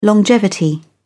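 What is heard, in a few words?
"longevity", British English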